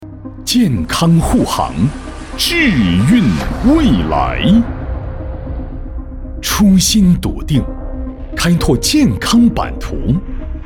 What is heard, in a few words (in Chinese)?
男 专题 科技感 企业宣传